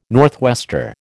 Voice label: "northwester", American